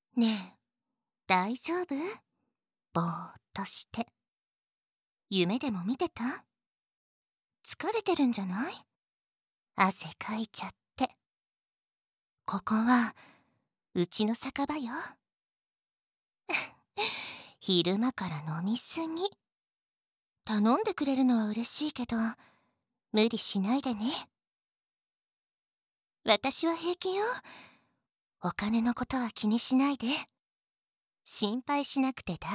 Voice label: 好きな人の愛の囁き耳舐めフェラ, ASMR; ラブパワーがあるなら、好きな人の愛の囁き耳舐めフェラも余裕だよね?; SE無し

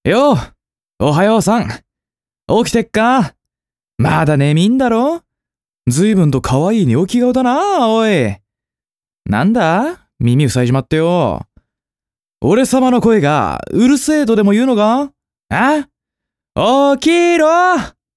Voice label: 「おはよう」オレ様

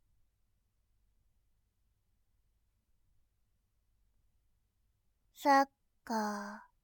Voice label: "サッカー", ASMR; モノラル

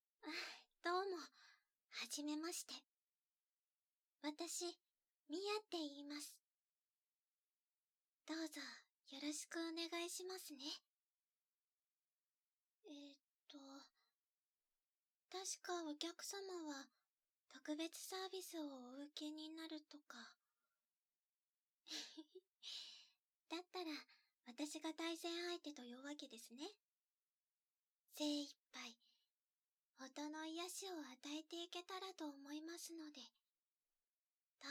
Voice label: ASMR施術(アラームあり)

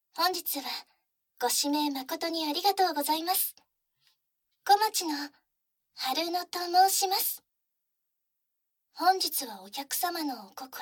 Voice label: 耳かき 囁き重視 効果音あり, ASMR

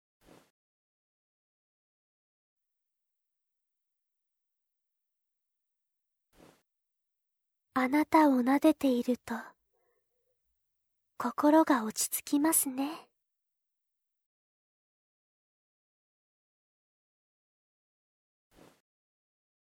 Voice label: なでなで BGMなし, ASMR

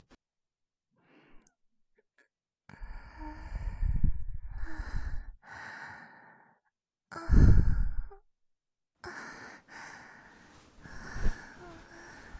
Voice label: 翌朝目が覚めて, バイノーラルマイク 効果音あり

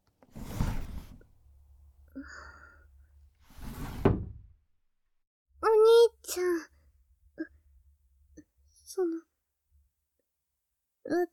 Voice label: おやすみ, ASMR

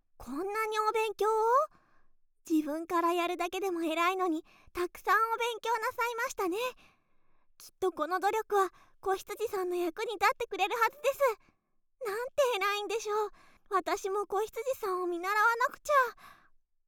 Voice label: 褒め お勉強をしてえらい！, ASMR

